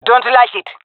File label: disagree, jungle fem; vo; agents; Source Model Packs; Counter Strike Model Pack; CS2; jungle fem epic